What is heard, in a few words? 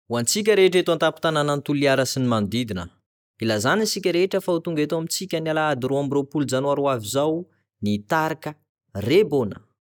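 Malagasy, commercial; GoLocalise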